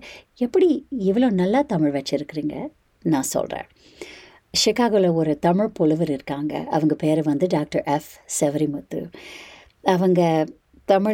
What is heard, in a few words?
languages women tamil